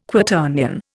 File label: "Quaternion", Indian